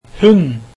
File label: dog, norwegian